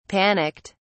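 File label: "panicked", British English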